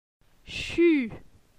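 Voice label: "Chu", French; la syllabe u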